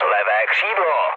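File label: left wing, Czech, mood low; speech; War Thunder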